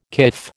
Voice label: "kif", American